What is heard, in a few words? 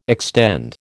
"extend", American